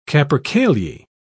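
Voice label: "capercaillie", British English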